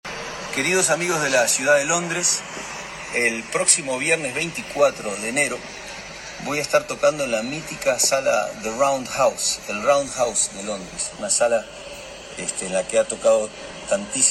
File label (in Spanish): anuncio